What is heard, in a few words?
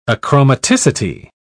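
"achromaticity", British English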